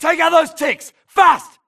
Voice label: grunt react Ticks Nearby; imc; Titanfall 2

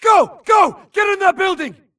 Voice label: grunt flee Player Titan Building Call; imc; Titanfall 2

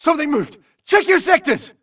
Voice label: grunt trans Idle To Alert Init; imc; Titanfall 2

